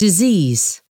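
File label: "disease", English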